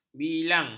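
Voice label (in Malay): "bilang", Malay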